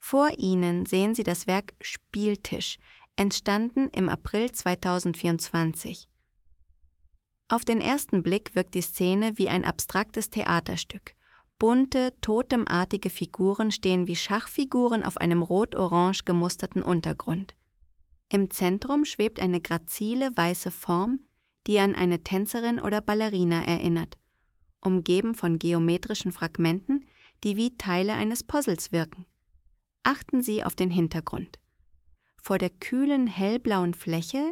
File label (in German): voice audio guide; spieltisch